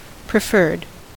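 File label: "preferred", US English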